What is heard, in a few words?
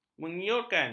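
"menyiulkan", Malay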